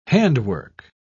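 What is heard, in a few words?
"handwork", British English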